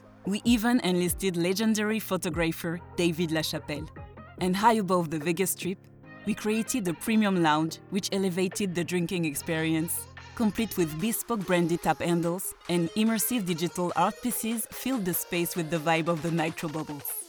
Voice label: english commercial, french voice actors